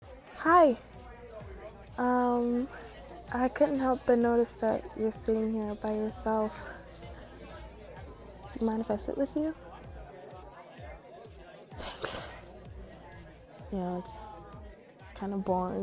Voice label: no music, sfx added, ambience added; will you let me be your first time…

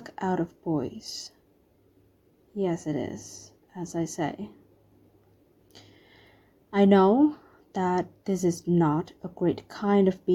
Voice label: Prude Succubus, w sfx

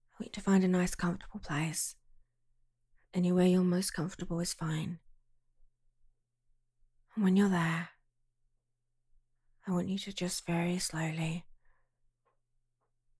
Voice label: Relaxation Meditation soothing English Accent; for a Headache; [migraine]; [selfcare]; [recharge]

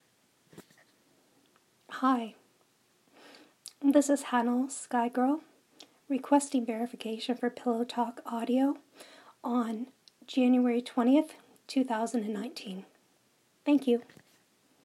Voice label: F Verification Pillowtalk Audio

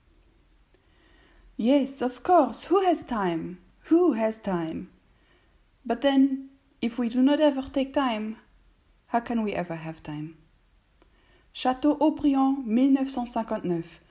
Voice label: French Accent Cursing; F4A; Merovingian; The Matrix

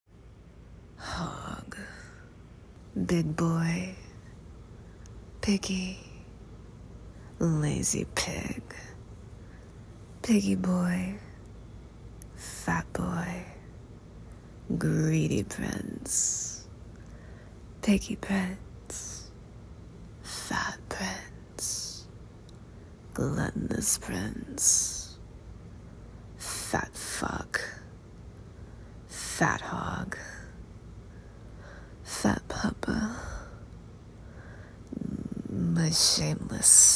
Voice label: Fat Fetish, Ramblefap, Teasing, Name-calling; F4M; Weight Gain; Teases

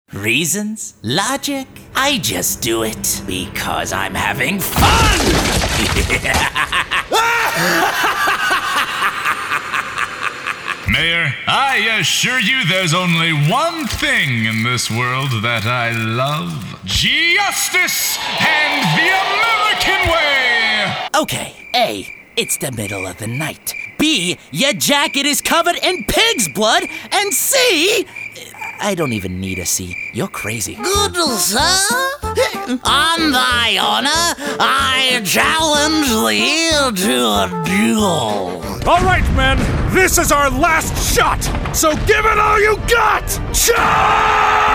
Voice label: voice acting

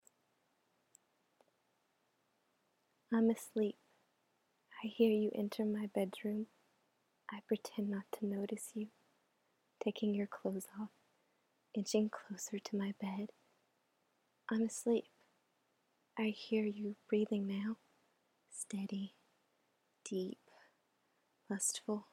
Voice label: Erotic Poetry; I'm asleep

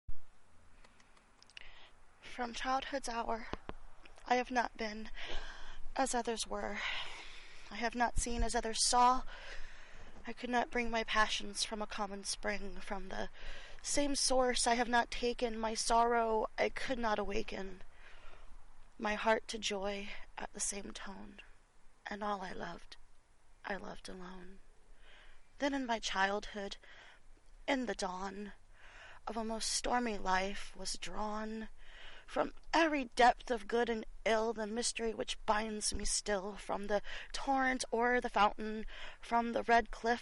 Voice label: F4A Poetry

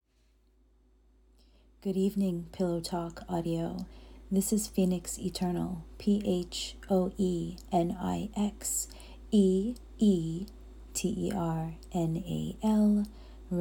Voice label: Pillow Talk Audio Verification F

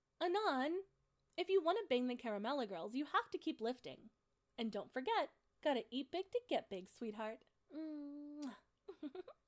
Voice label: short sweet encouraging

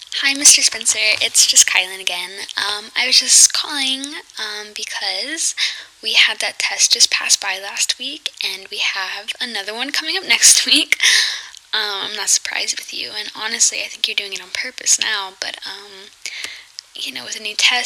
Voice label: Phone Message

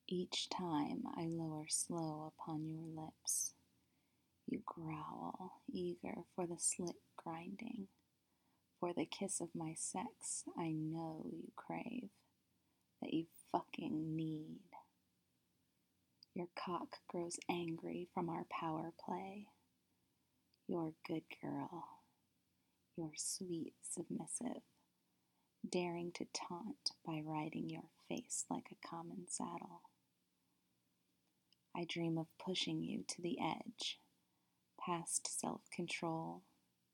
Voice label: erotic poetry; F4M; flipped script; MDom; Fsub; face sitting; Power Play

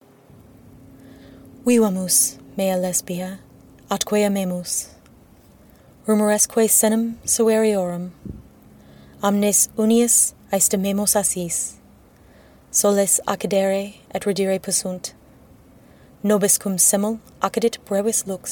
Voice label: [F] read in Latin & English [Romantic][Bilingual]; Catullus 5 by Catullus; So many kisses; Valentines is coming

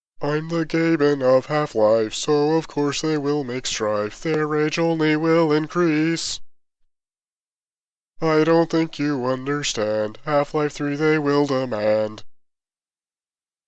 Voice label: Vocals Only

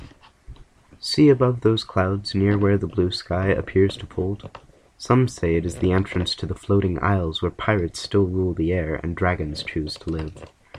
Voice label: accent test

